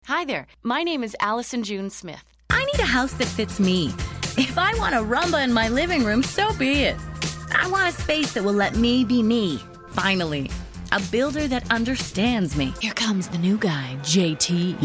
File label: commercial female